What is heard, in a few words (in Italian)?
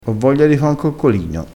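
"coccolino", vocabolario dialettale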